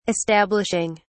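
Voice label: "establishing", British English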